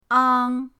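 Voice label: "ang" first tone, pinyin; pinyindct-open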